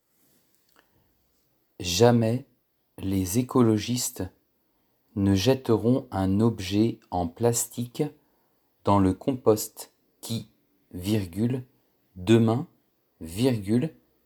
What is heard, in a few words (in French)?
Dictée flash Recyclage